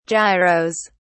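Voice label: "gyros", British English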